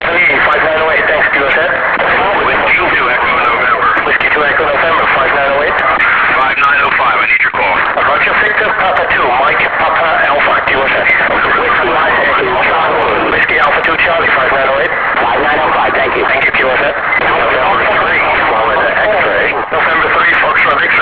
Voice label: ssb contest

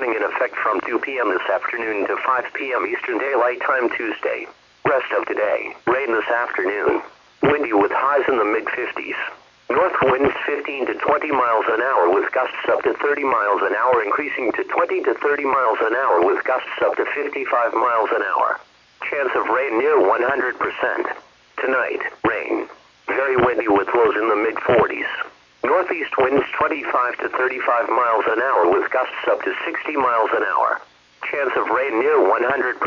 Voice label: Hurricane Sandy Forecast Norwich NY

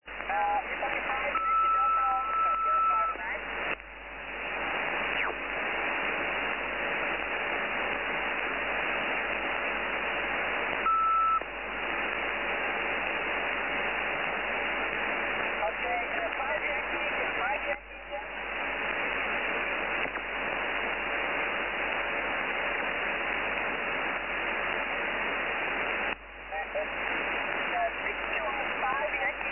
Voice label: USB

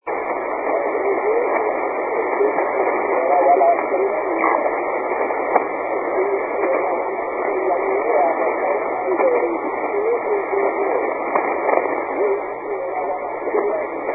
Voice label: 80m ssb

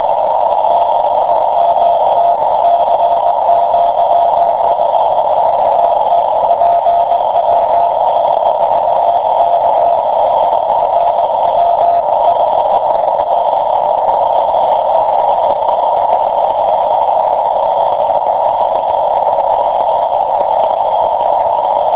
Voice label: qso

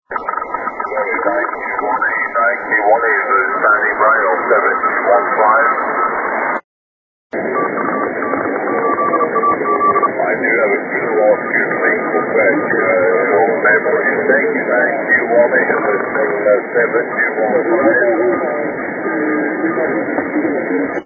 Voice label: 40m ssb, from Congo on SSB; FT-1000d

